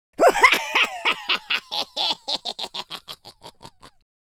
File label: happy, Pirate